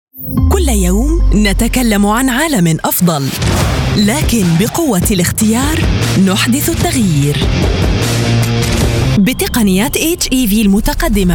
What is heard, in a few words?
Modern Standard Arabic Commercials VO